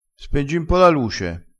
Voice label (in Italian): "spenge", crespinese